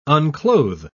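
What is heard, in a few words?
"unclothe", British English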